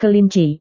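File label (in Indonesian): "kelinci", Indonesian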